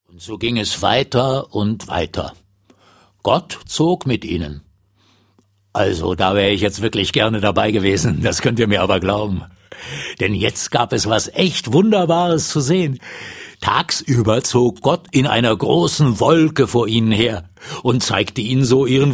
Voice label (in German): Erzaehler